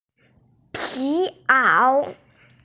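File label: "piao", Mandarin Chinese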